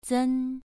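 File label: "zen", Mandarin Chinese, second tone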